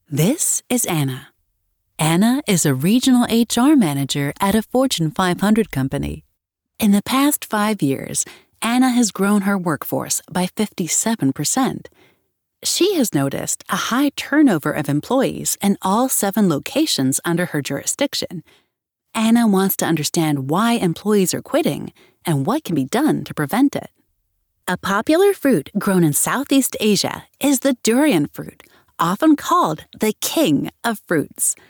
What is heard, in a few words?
eLearning